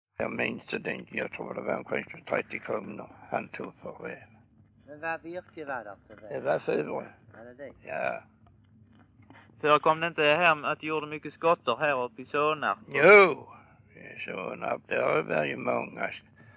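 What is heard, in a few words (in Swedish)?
Örkelljunga Skåne, Svenska sagor dialekter; Folkminnen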